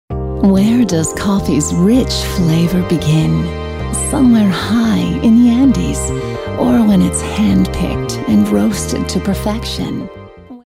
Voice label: sexy